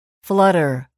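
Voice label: "flutter", English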